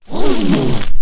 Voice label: Alien Scientist; little robot sound factory

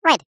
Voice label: cartoon voice high pitched says "red"

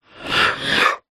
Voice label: horror voice ghostly says theyre here

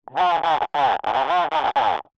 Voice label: emergency radio police garbled message; sound effects one

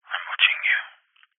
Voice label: human man english says im watching you creepy; zapsplat

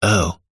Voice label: human male middle aged says oh breathy

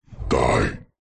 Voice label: horror demonic voice male deep says die; zapsplat

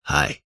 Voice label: human male middle aged says "hi" breathy

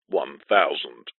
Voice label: aircraft plane cockpit voice says "100"

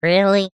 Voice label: cartoon male high pitched voice says really

